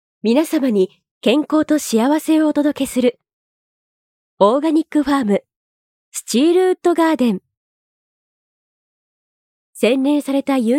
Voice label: ナチュラル; ナチュラル2

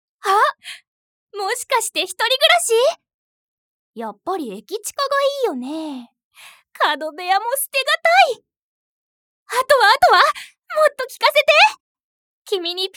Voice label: セリフナレーション

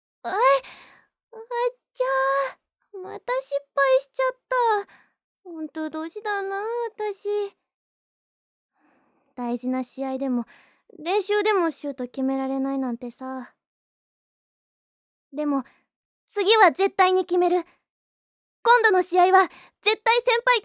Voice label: 女子高生

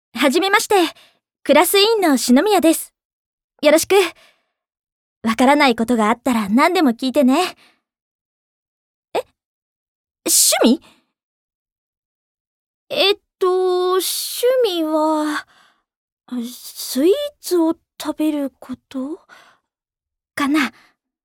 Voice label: お淑やか 委員長

